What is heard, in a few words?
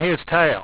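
"tail", english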